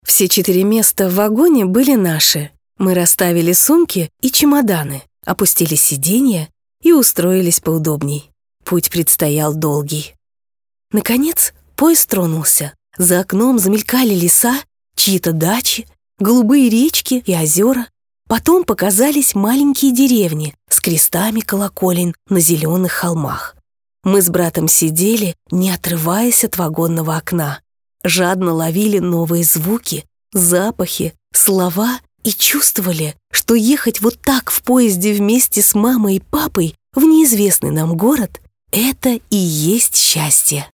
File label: RUS female, Casual